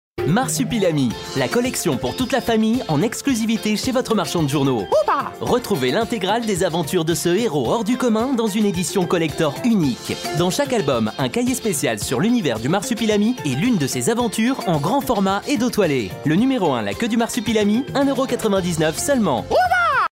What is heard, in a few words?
spot; m6 collection hachette marsupilami